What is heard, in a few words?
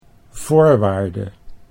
"voorwaarde", Dutch